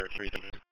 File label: Police Sheriff Dispatch; Radio